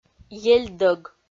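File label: "ельдӧг", Komi, female; kpv audio dict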